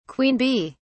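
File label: "queen bee", British English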